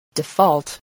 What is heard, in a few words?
"default", English